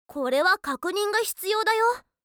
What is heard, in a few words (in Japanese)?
これは確認が必要だよ（警告メッセージ）